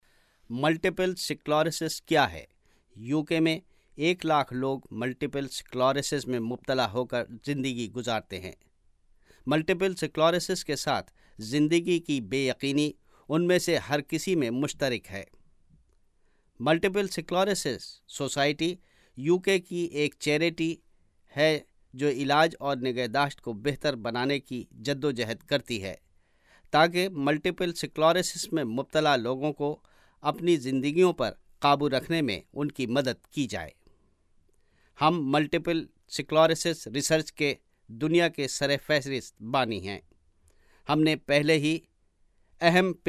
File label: Urdu